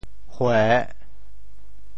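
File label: "hue3", Teochew; 潮州; 嫌货正是买货人; 会嫌货的才是真正识货的买货人; 俗语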